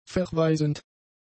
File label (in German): "verweisend", German; adjectives